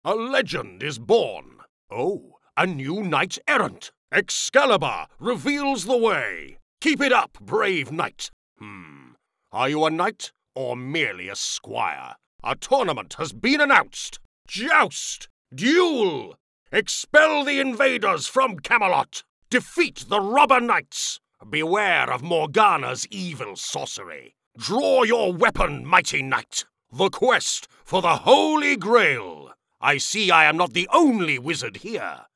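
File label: UK English Video Game; GoLocalise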